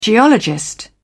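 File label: "geologist", British English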